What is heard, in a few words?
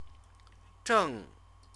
"zheng", Chinese